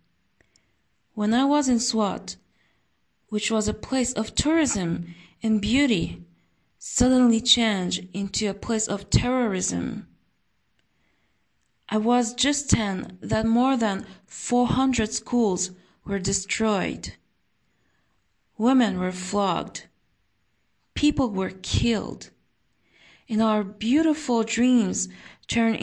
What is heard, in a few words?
recitation